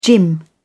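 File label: "gym", British English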